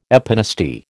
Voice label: "epinasty", American